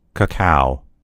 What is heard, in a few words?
"cacao", en US; gcompris